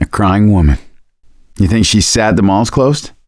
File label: heard witch, voice; Left 4 Dead 2; survivor; gambler